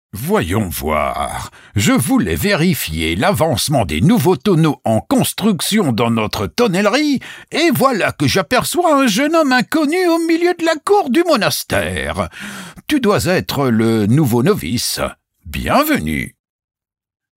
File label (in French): Audioguide; MAITRE NOVICE